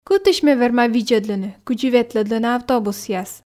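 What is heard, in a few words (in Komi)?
кытысь ме верма видзӧдлыны кыдзи ветлӧдлӧны автобусъяс, Komi, female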